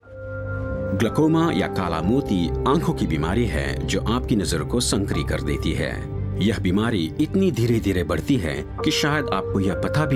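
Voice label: Hindi, General; GoLocalise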